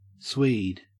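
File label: "swede", Australian English; pronunciations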